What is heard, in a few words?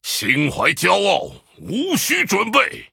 Male Human GREETINGS, zh